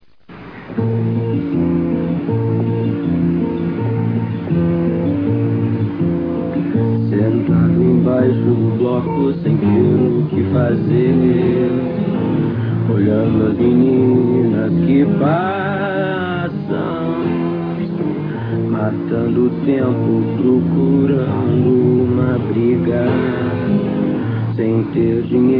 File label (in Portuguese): anuncio